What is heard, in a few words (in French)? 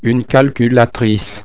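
"calculatrice", French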